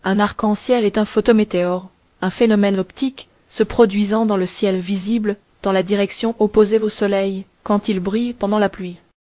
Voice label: speaker, fr FR; piper-voices